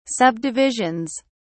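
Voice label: "subdivisions", British English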